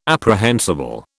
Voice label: "apprehensible", British

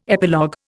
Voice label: "epilogue", Indian

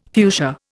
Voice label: "fuchsia", Indian

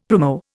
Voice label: "primo", Indian; Dictionary

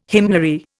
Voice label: "hymnary", Indian

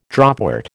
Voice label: "dropwort", American

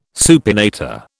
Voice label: "supinator", British

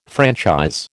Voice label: "franchise", American

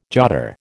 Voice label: "jotter", American

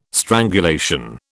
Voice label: "strangulation", British

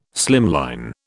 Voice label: "slimline", British

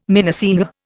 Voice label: "minnesinger", Indian

